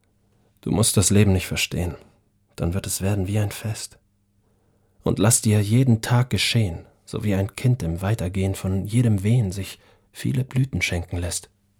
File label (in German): Schauspiel